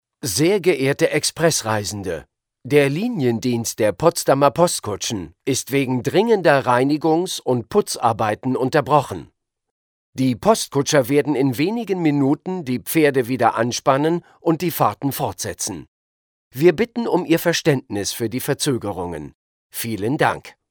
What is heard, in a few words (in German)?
Information normal m